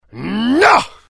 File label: anger, dialogue IT; mohaa; attack